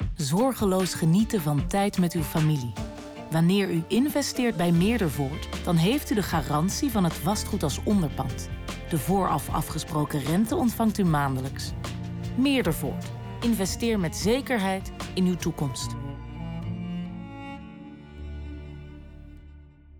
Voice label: Commercial, radio-commercial